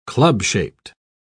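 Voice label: "club-shaped", English, British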